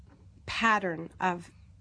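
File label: "pattern of", American English; 'pæɾɚnəv; pattern of (AE)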